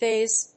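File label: "fizz", English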